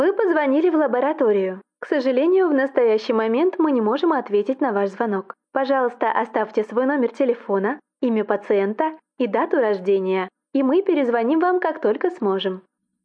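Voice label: Russian Female Voice Over Telephone Switchboard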